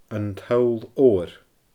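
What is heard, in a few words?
"An Toll Odhar", Scottish Gaelic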